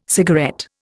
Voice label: "cigarette", Indian